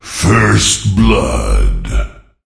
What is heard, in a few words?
Voices Announcer Reward